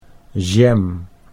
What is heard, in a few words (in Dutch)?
"jam", Dutch